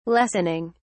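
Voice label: "lessening", British English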